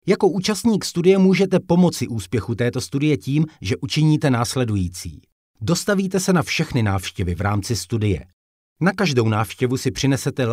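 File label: Czech male e-learning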